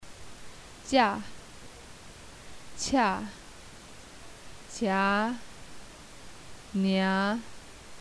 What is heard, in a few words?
alphabet, Tibetan